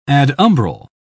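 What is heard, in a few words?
"adumbral", British English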